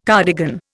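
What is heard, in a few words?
"cardigan", Indian English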